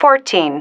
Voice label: "fourteen", female